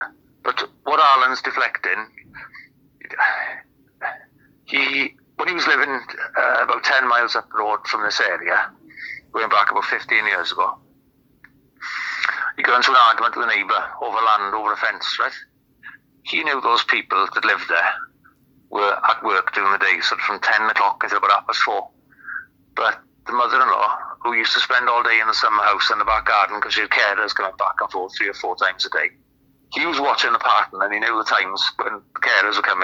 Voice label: phonecall; A woman threatens me.